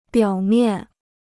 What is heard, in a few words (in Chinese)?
"表面", Chinese